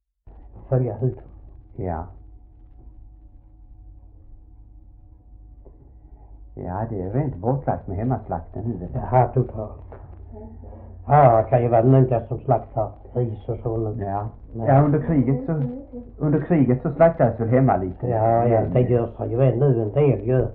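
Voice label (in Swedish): Oderljunga Skåne, Svenska sagor, dialekter; Folkminnen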